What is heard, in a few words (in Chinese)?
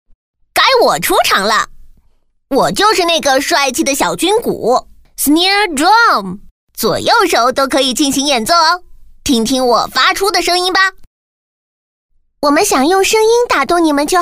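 女 儿童配音【3个人物角色】; 可爱; 小军鼓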